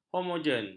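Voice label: "homogen", Malay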